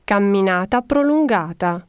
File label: italian walkathon; samsung YP-U5; Audio User Interface